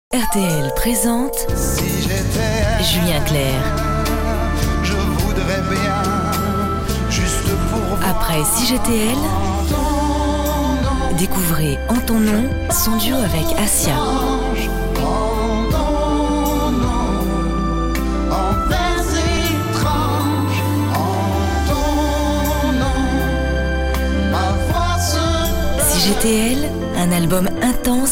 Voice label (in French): PUB TV RADIO